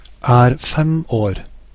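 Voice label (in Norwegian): "er fem år", Norwegian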